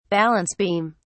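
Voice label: "balance beam", British English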